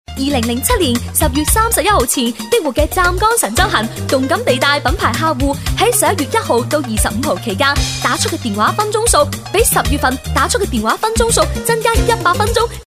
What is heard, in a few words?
cantonese chinese voiceover locutora china